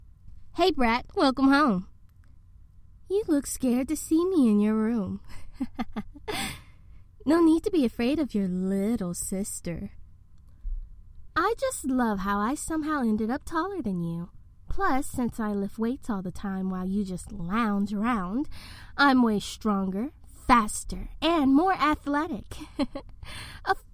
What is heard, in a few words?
Bass Boost; voice acted stories; your sister… (With Bass Boost)